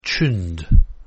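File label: "tuned", English word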